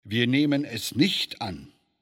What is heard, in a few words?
Neutral No Layer; Speech; Civ5; Bismark